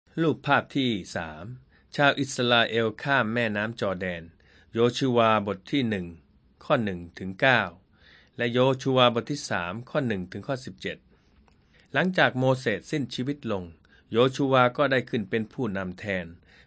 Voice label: thai central